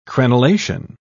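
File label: "crenelation", British English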